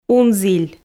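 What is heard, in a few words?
"унзіль", Komi, female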